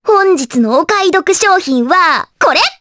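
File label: Vox Skill Japanese; Requina; kingsraid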